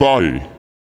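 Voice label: vocal male, Dance Vocals, spoken words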